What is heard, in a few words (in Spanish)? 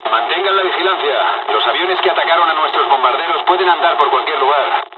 dialogs spanish; War Thunder